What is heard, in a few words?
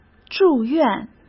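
"住院", Mandarin Chinese; chinese flashcards; hsk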